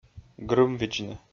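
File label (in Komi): "грымвидзны", Komi, male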